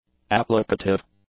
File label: "applicative", English; adjectives